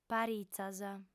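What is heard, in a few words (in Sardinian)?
"paritzas", female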